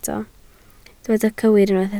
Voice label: "cywir", Welsh

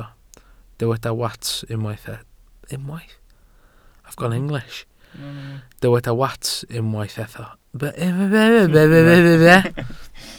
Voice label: "wats", Welsh

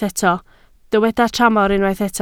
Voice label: "tramor", Welsh

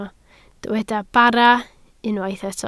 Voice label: "bara", Welsh

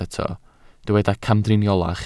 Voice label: "camdriniolach", Welsh

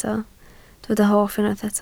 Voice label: "hoff", Welsh

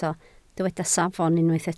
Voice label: "safon", Welsh

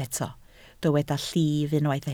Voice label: "llif", Welsh

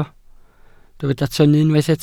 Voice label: "tynnu", Welsh

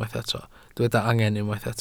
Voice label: "angen", Welsh

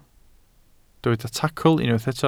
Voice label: "tacl", Welsh

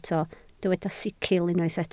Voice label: "sicl", Welsh

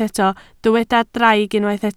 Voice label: "draig", Welsh

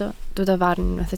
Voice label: "farn", Welsh

